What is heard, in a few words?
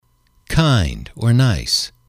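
kind nice, English, male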